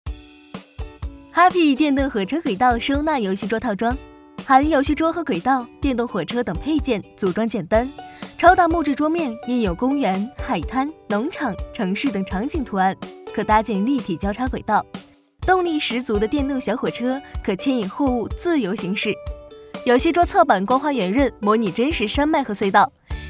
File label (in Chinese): 女 电动火车轨道套装; 飞碟说; hape